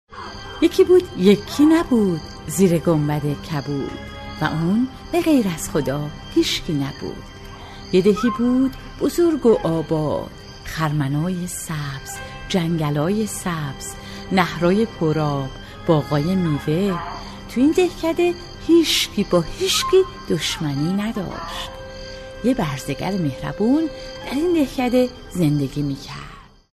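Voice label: Farsi, female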